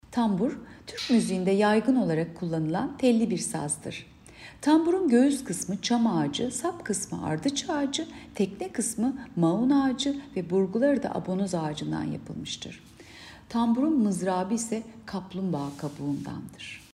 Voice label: turkce